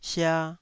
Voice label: "sia2", taiwanese Syllables